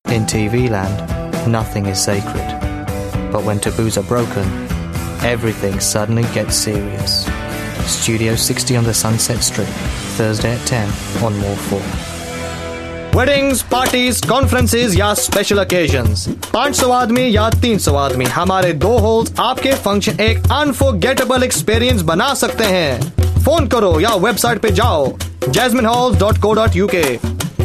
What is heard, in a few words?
Commercials